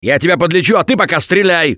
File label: Heal Other Combat, russian, Survivor Voice; Gambler